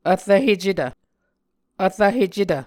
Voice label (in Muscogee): "vrvhecetv", muscogee